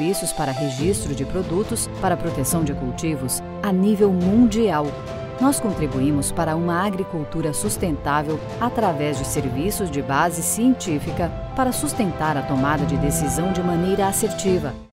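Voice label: Brazilian Portuguese, female, agroscience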